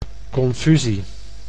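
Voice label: "confusie", Dutch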